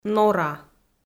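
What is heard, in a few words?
"нора", Komi-Zyrian, female; audio dict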